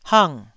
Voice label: "hang4", Taiwanese syllable

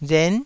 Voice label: "ren9", taiwanese

